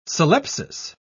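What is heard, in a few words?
"syllepsis", British English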